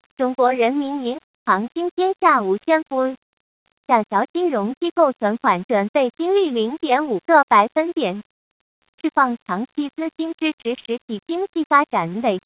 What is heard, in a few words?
female long